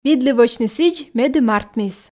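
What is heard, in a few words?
"видлы вӧчны сідз медым артмис", Komi, female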